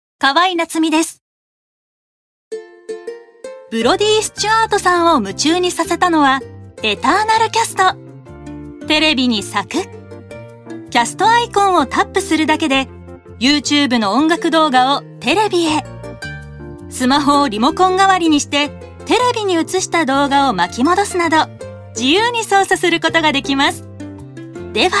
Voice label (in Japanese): ナチュラル